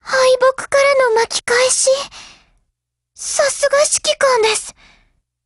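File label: win, Japanese; GF Alarm; Carcano1938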